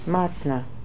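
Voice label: "Martna", pronunciations; Fourth Way; Beelzebub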